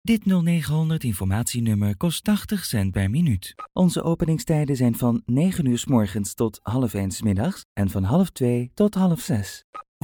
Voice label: DUT F